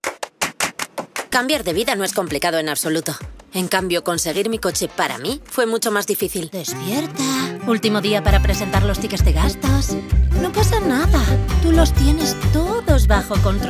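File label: Spanish, female, commercial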